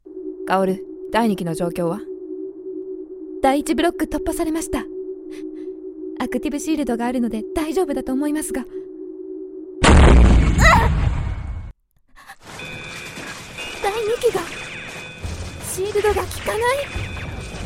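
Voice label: Japanese, Characters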